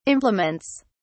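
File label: "implements", British English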